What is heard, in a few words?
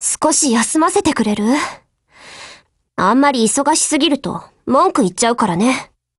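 Japanese, Voice; OPERATIONOVER; GF Alarm; PzB39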